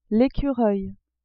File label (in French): "ecureuil", French